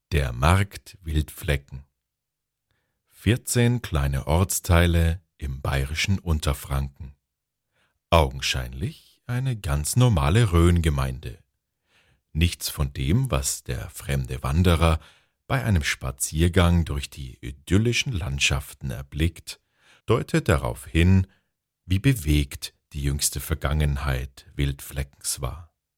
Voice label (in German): Museum Sachlich ruhig